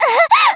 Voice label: die, Voice Line, JPN; Eternal Return; Tia